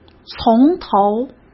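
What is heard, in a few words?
"从头" Mandarin Chinese; hsk; chinese-flashcards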